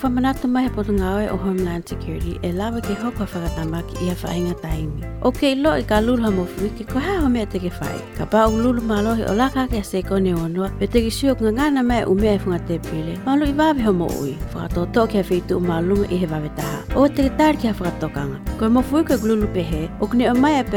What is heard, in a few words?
earthquake tsunami Tongan; DHS; TEMCO